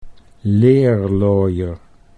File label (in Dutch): "leerlooier", Dutch